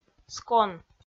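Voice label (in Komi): "скон", Komi-Zyrian, female; kpv audio dict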